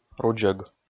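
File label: "рудзӧг", Komi, male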